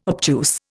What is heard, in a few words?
"obtuse", Indian